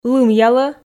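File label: "лымъялӧ", Komi, female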